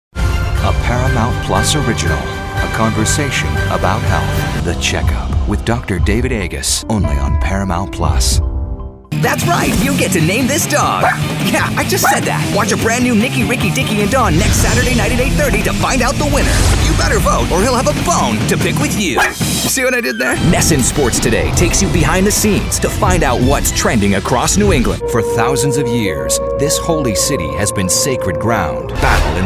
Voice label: promo men